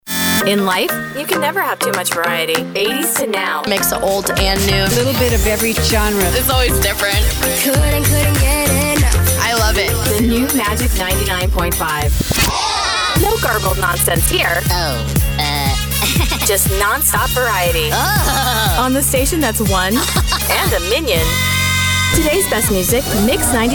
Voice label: AC Radio Imaging